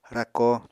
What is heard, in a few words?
"rako", Apatani